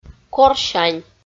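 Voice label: "корсянь", Komi, female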